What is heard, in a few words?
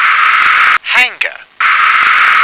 "hanger", English